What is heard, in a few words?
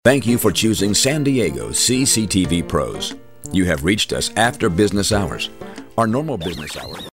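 American English, male, IVR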